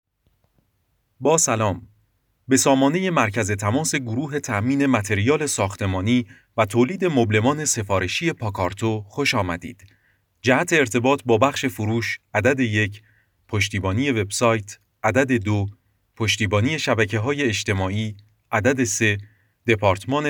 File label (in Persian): صدای آقا